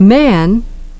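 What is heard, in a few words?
"man", English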